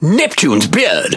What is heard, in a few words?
Speech Quick Commands Caramba Beep, EN GB; World Of Warships